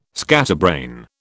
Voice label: "scatterbrain", British